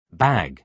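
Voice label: "bag", British English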